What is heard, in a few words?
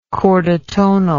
"chordotonal", English